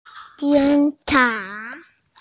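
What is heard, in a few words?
"police", Mandarin Chinese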